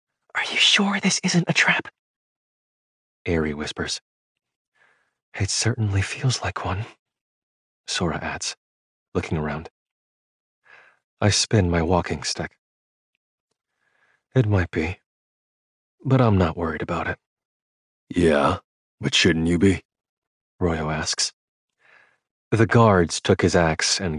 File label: Voiceover, Audio Books; New York